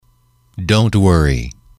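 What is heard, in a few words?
"don't worry", English, male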